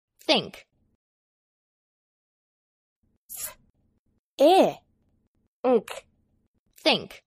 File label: "think", English